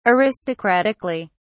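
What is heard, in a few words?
"aristocratically", British English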